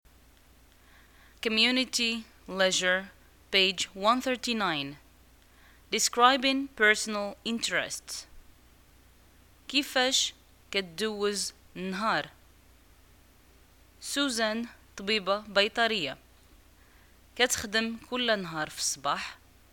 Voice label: reading comprehension, Arabic, North African, Moroccan